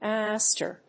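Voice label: "ouster", English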